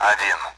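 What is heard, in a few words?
Speech Sound Russian Common AWACS Digits; DCS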